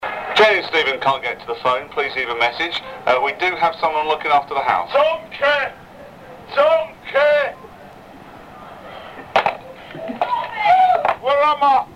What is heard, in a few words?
answerphone message from the 1990s